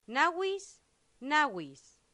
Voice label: "navis navis", latin; santillana

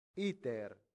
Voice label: "iter", latin; santillana

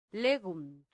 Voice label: "legunt", latin; santillana

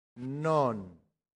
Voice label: "non", latin; santillana

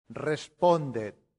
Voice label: "respondet", Latin; santillana

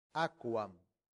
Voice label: "aquam", latin; santillana

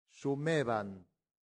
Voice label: "sumebant", latin; santillana

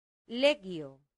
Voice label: "legio", latin; santillana

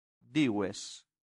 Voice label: "dives", latin; santillana

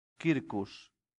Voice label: "circus", Latin; santillana

